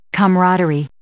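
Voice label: "camaraderie", English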